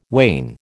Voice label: "wane", American